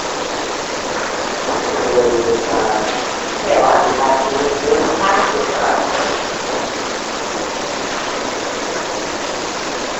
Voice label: Unexplained whisper (Hi) 2nd Floor Mid section